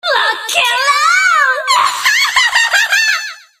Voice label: Enemy Voice Fast Light Carrier Damaged Attack; Water Demon